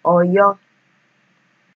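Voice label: "Oyo", Nigerian Pidgin, female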